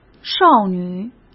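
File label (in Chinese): "少女", Mandarin Chinese; hsk; chinese-flashcards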